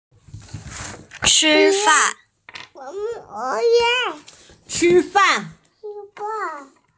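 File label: "chi fan", Mandarin Chinese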